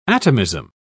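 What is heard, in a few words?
"atomism", English, uk British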